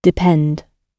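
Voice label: "depend", British English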